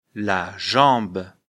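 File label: "jambe", French; afrikalan; lecture fr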